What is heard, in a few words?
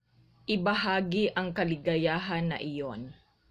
Tagalog